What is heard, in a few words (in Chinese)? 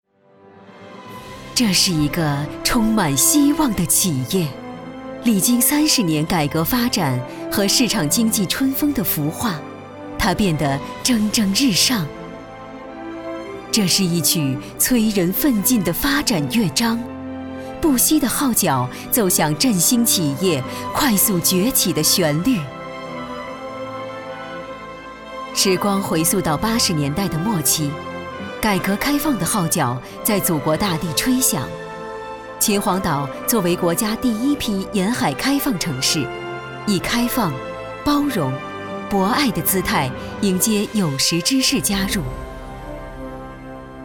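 国语 女声 宣传片 成熟 大气浑厚磁性 沉稳 娓娓道来 亲切甜美; 宣传片-政府党政类-【大气歌颂】国企宣传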